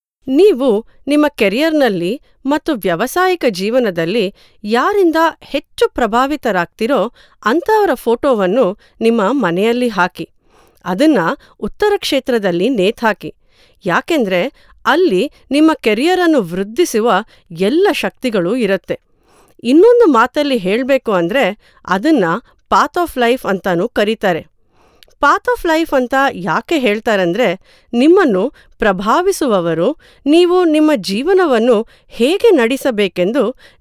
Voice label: narration, kannada